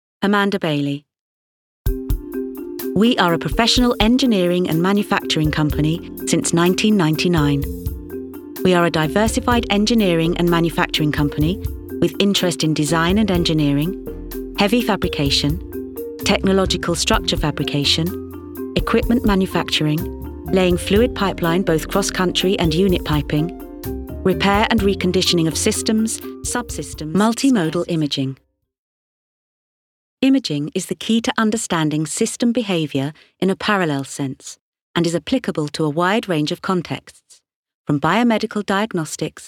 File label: Corporate